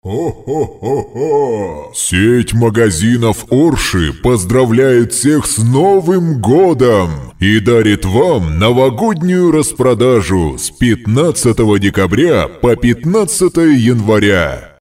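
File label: Father Frost voice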